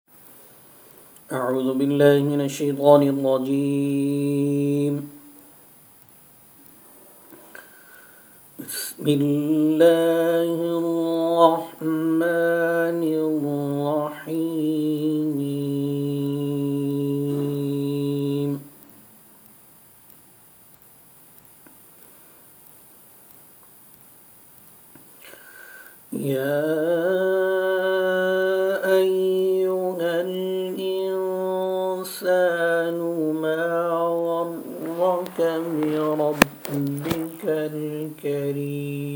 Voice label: voice, recitation